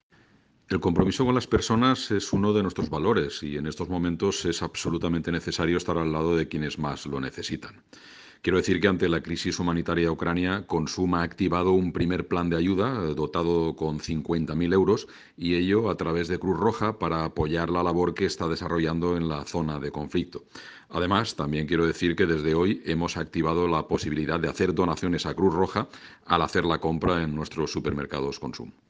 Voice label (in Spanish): CORTE VOZ Ayuda Ucrania cast